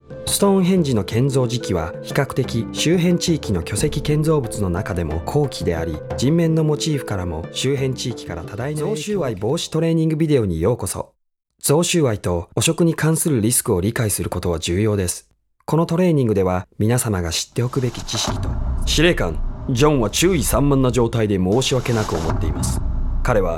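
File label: JA General, Japanese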